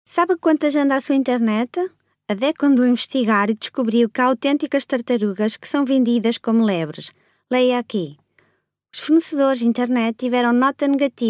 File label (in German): Nachvertonung Film Sprecherin Portugiesisch